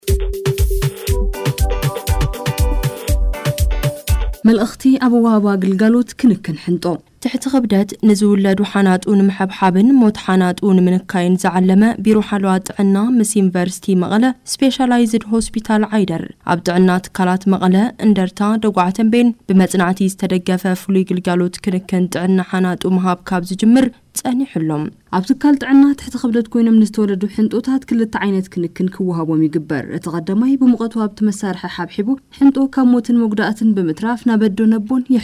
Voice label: media message for regional radio broadcast tigrigna